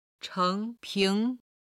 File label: "cheng2 ping2", Chinese